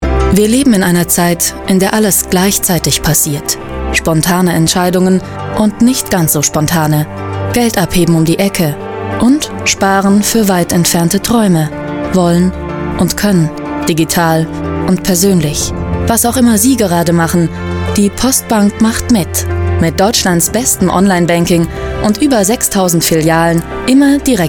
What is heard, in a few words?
commercial; postbank